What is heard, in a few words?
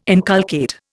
"inculcate", Indian